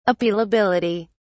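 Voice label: "appealability", British English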